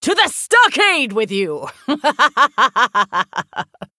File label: Female Human